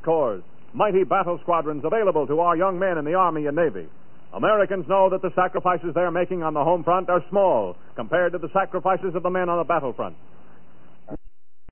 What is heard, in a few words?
Commercials Psa Total Victory